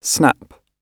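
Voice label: "snap", British English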